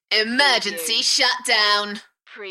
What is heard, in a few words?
UK female Enthusiastic; Emergency shutdown; Tech / Sci-fi